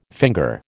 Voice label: "finger", American